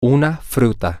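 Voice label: a Fruit, Spanish, male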